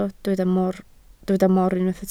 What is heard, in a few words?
"mor", Welsh